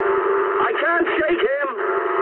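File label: aircraft damaged mood high, speech English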